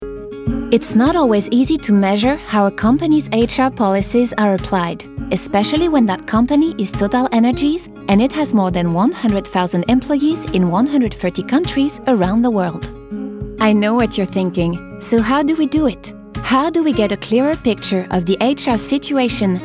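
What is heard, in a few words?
instit English; Totalenergies RH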